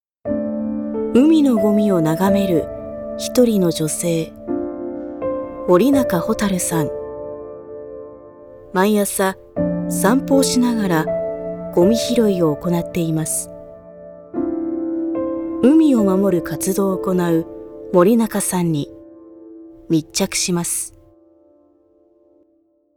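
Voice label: Japanese, Narration; GoLocalise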